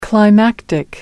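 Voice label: "climactic", British English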